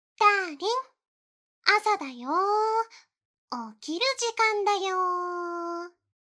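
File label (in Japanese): 目覚まし（甘く）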